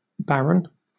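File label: "baron", British English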